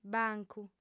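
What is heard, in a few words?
"bancu", female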